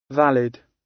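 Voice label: "valid", British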